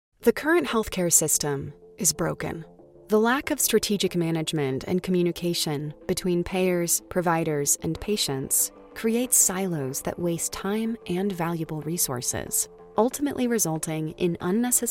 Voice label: articulate intelligent balanced, Professional Female Voice Over; commercials, corporate narration